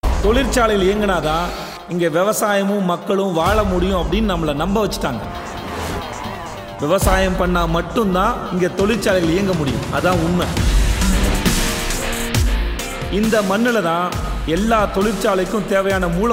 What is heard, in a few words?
trailer dialogue bgm ringtone; tamil; laabam; vijay sethupathi